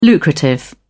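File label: "lucrative", British English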